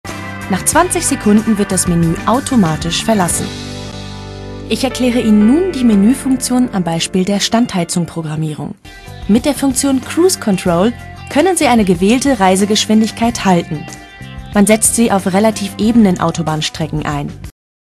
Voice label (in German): Imagefilm; Renault